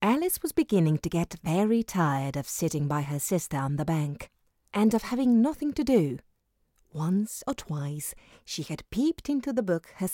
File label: english narration